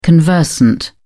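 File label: "conversant", British English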